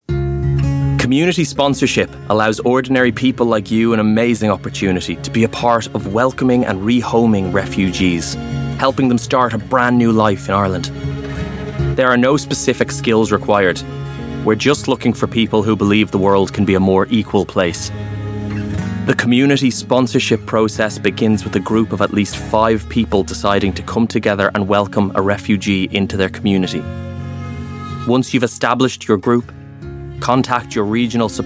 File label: irish voice corporate